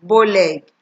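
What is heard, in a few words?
"bow leg", Nigerian Pidgin, female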